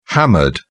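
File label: "hammered", British English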